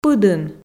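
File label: "пыдын", Komi, female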